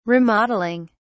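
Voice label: "remodeling", British English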